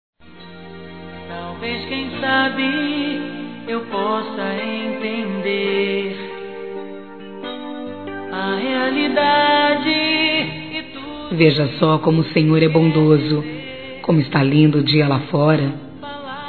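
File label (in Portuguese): ROMANTICA SUAVE, feminino; Telemensagens 2017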